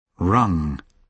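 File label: "rung", British English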